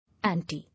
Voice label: "Ante", English

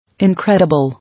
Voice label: "Incredible", English

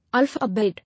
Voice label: "Alphabet", English

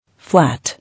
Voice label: "Flat", English

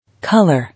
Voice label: "Colour", English

